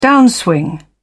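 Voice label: "downswing", British English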